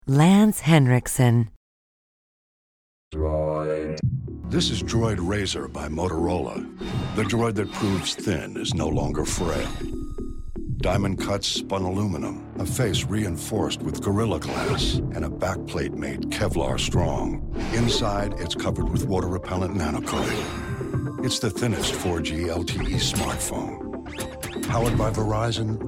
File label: commercial; Innovative LA